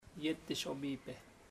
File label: I wont work, chamacoco; Puerto Diana